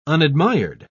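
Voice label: "unadmired", British English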